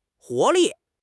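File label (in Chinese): "活力", Mandarin Chinese; HSK6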